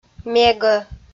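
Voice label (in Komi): "мегӧ", Komi, female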